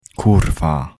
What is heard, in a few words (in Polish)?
wymowa po polski "kurwa"